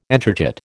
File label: "entrechat", American